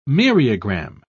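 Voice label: "myriagram", British English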